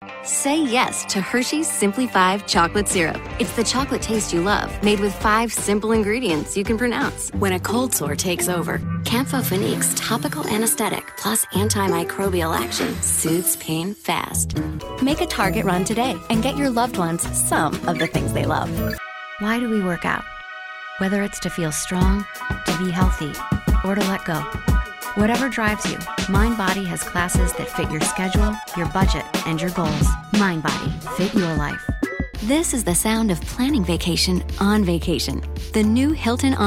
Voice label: Commercial VO